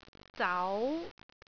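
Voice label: "zau2", Cantonese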